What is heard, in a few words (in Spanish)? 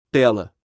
Tela en portugues